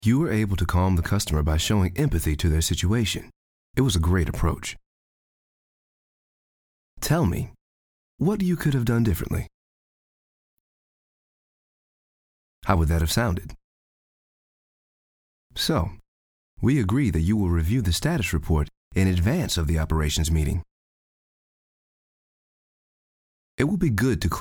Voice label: ETHNIC VO SAMPLE